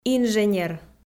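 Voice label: "инженер", Komi, female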